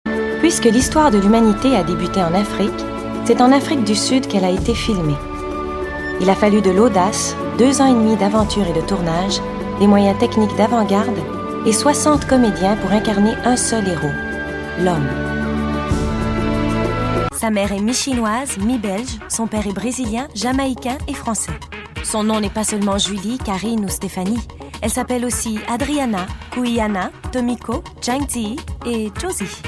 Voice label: narration francais

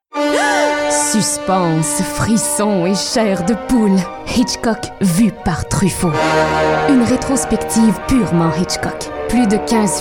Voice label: annonceur thriller

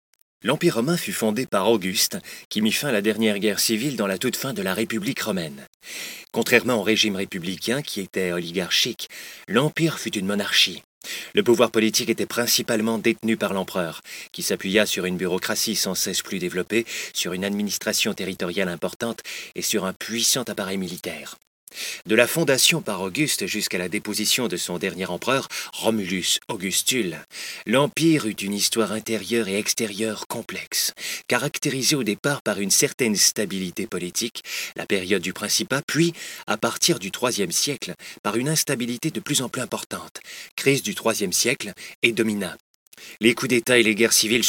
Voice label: Narration

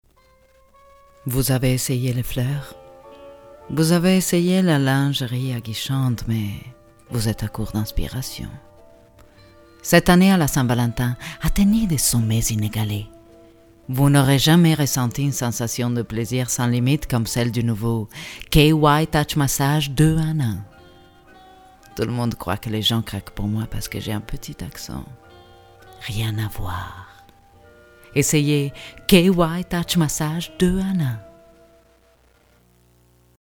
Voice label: voix fr

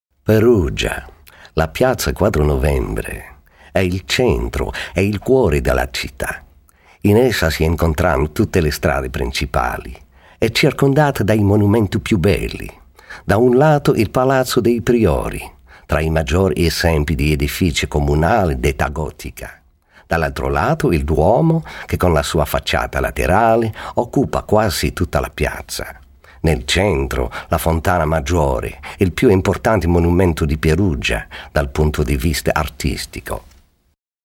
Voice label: voix Italien

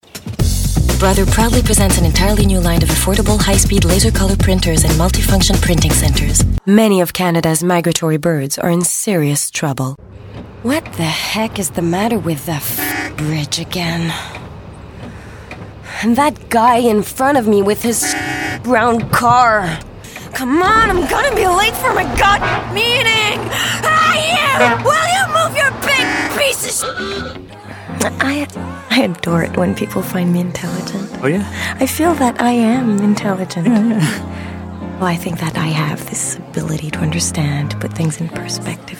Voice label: pub anglais

